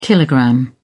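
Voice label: "kilogram", British English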